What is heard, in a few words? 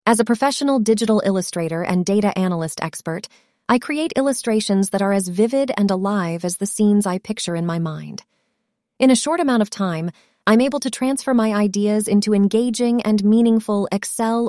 synthesized audio